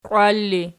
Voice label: "qiwal", Archi; qiwail

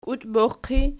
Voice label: "kiutbos pot", Archi

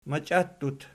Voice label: "machiattut", Archi

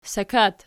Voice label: "sakat", Archi

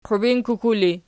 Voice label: "qubinkuku", Archi; qubinququ

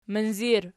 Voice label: "minzir", Archi

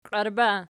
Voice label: "qiarba", Archi

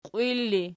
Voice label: "qiwil", Archi

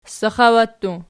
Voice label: "saxawattu", Archi

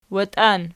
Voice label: watian nom, archi

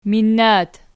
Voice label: "minnat", Archi

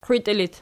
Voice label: "qiwitii", Archi

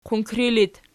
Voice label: "qunkri", Archi

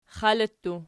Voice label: xali pl, archi

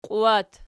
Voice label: "qiuwat", Archi

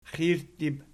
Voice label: "xirttib", Archi

